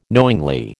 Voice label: "knowingly", American